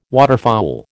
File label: "waterfowl", American